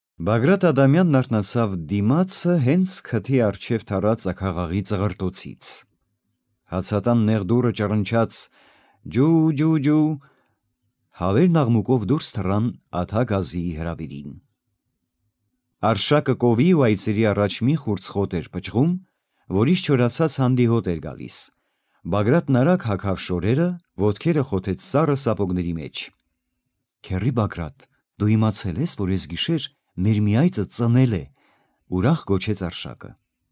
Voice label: male armenian voice over narrative